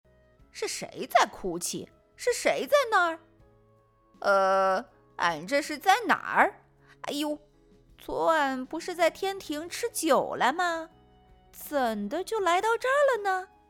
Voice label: 童话老顽童角色代入

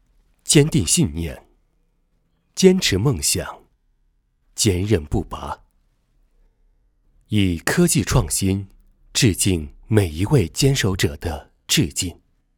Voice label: 发布会台词试音

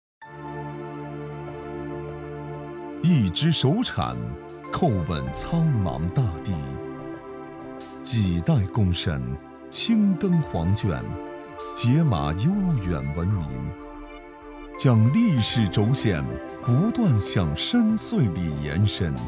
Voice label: 大气低沉 片头

